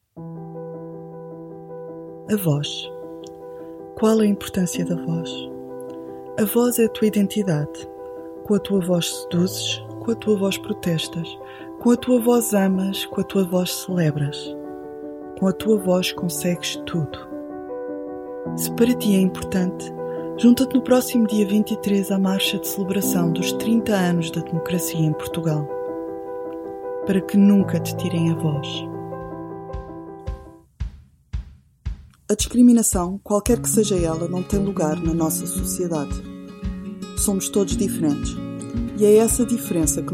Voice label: Portuguese Corporate

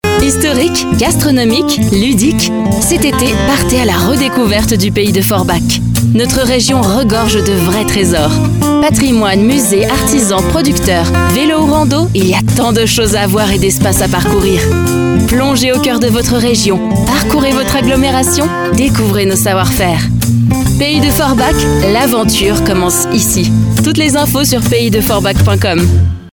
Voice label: French Tourism

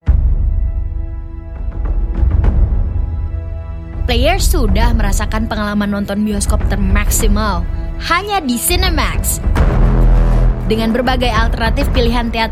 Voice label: Bahasa Indonesia Female; Voice Demo

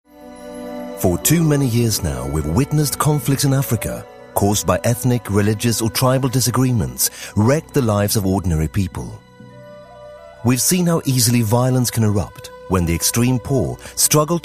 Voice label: Swedish English, Male; Shalom

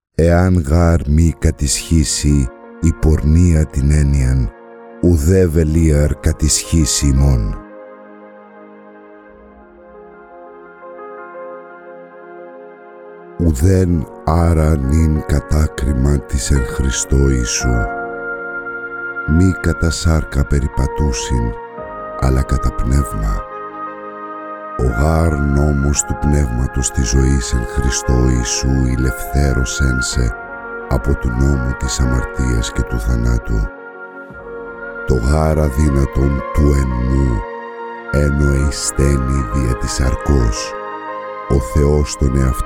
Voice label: Greek Ancient Greek

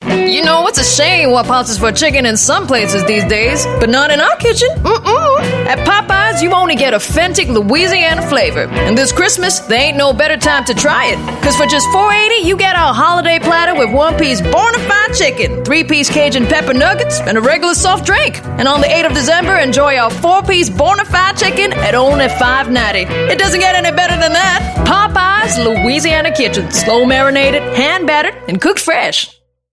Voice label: Asian English; Popeyes

